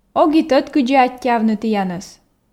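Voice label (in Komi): ог и тӧд кыдзи аттьӧавны тіянӧс, Komi, female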